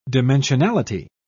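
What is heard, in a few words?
"dimensionality", British English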